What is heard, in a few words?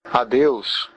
goodbye, portuguese br